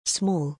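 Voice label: "Small", English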